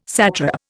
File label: "satrap", Indian